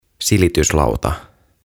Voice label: "Silityslauta" "Ironing Board"; OTAVA KONSERNI

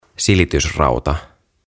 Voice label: "Silitysrauta", "Iron"

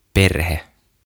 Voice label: "Perhe" "Family"

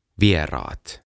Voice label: "Vieraat" Guests

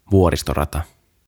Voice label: "Vuoristorata", "Big Dipper"

